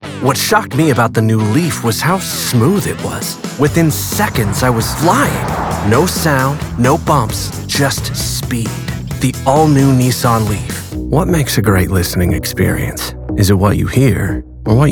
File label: Male Voice Over Commercial Demo